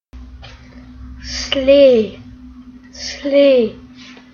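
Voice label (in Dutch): "slee", Dutch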